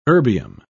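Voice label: "erbium", British English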